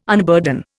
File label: "unburden", Indian